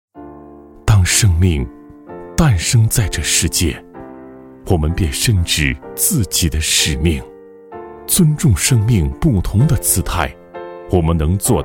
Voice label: 男 宣传片 医药; 瀚晖制药

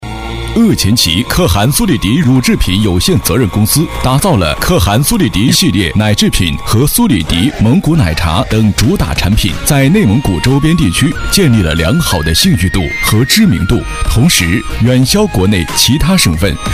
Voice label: 男, 专题; 可汗苏力迪; 乳业公司

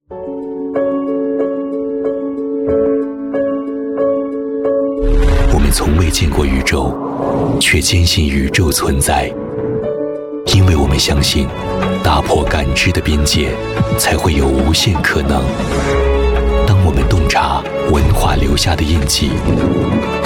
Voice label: 男,大气; 卡地亚

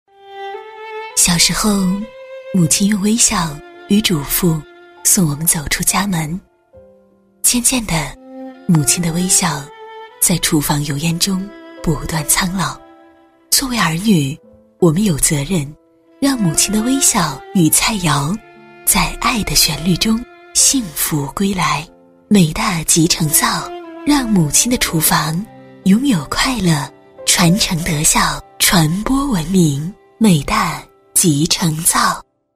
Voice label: 女 广告 集成灶公益广告; 美大集成灶公益广告

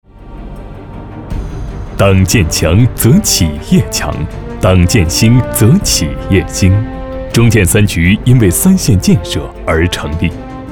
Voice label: 男 党建专题

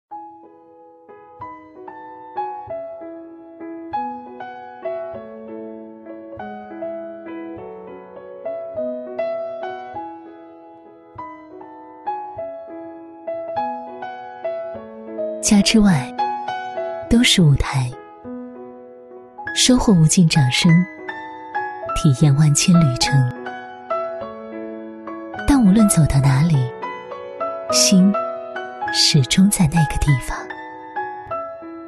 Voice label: 女4号 品牌广告, 国语女声配音

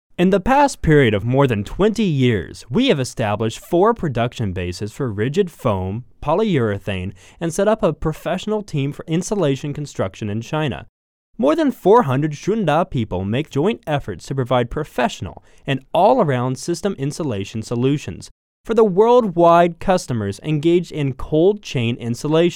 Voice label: 外籍 宣传片; 顺达

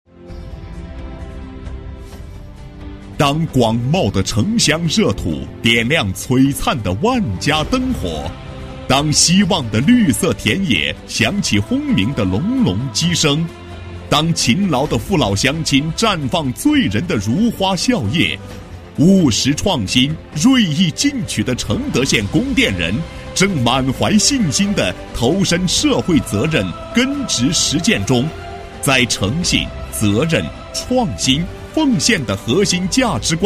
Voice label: 男7号 企业宣传片 供电公司, 国语男声配音

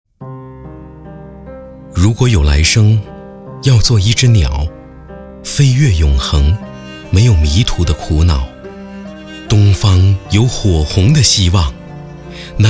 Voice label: 朗诵, 深情; 如果有来生

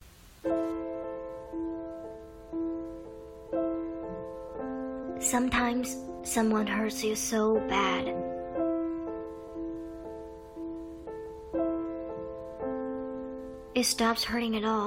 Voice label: 英文 家暴公益广告

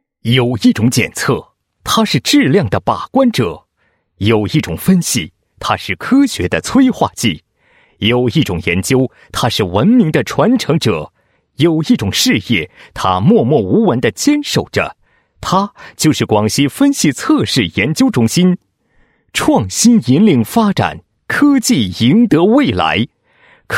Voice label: 男 专题片; 分析测试研究中心

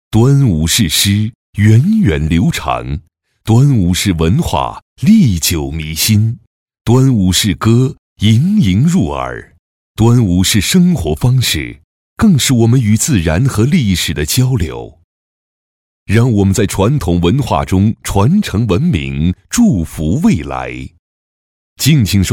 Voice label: 男 栏目导视; 端午节目

